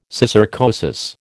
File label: "syssarcosis", American